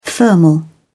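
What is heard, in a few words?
"thermal", British English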